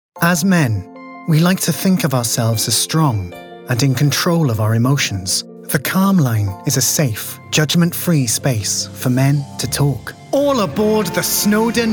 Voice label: male english and welsh multiple accents commercial compilation; devine voices